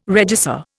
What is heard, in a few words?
"regisseur", Indian